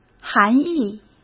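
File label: "含义", Mandarin Chinese; hsk; chinese-flashcards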